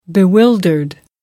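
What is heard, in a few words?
"bewildered", British English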